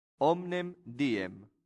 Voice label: "omnem diem", latin; santillana